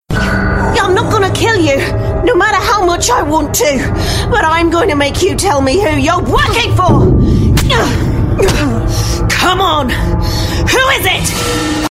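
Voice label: NZ English Action, Female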